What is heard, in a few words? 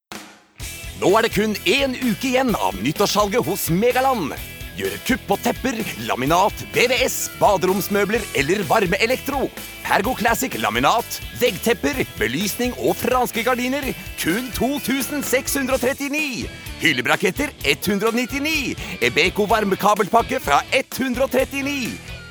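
no hard sell, Norwegian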